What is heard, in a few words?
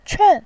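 "quan", Mandarin Chinese, fourth tone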